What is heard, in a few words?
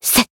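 Voice attack, Japanese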